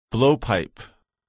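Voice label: "blowpipe", English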